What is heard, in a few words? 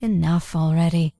Night Elf Female Standard Pissed